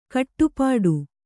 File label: "ಖಟಿಪಿಟಿ", Kannada